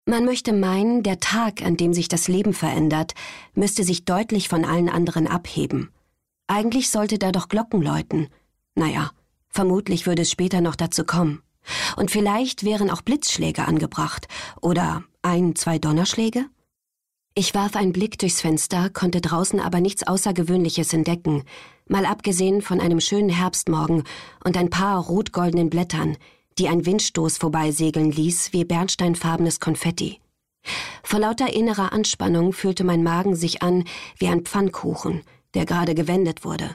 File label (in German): hoerbuch die nacht